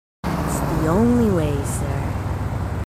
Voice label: marines female, marine tough